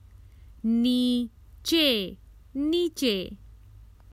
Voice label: Under, Common Hindi Phrases for travel